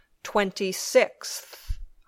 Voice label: "twenty sixth", English

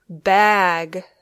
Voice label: "bag", English

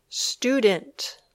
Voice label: "student", English

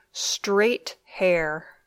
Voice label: "straight hair", English

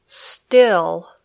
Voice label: "still", English

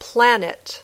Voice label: "planet", English

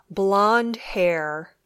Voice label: "blonde hair", English

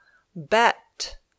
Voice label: "bet", English

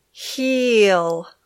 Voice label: "heel", English

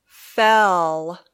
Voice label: "fell", English

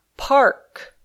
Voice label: "park", English

